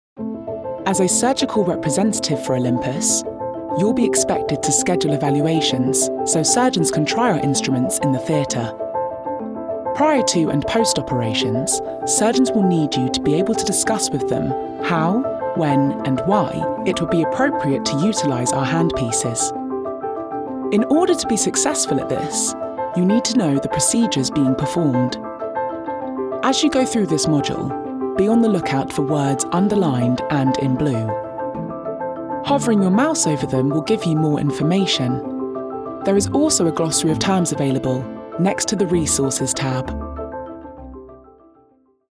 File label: Corporate